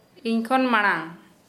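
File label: "in front", Santali, female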